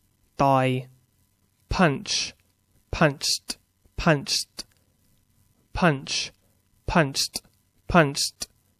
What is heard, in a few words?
"punch", English; Verb